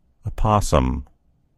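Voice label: "opossum", American English; gcompris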